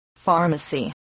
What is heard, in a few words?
"pharmacy", voice, English